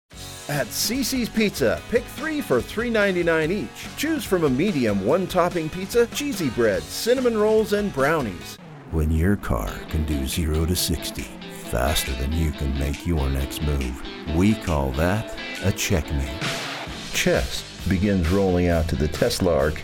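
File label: Commercial, voice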